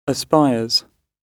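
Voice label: "aspires" British English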